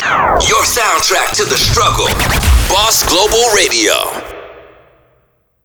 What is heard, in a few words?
RADIO IMAGING; BOSS GLOBAL RADIO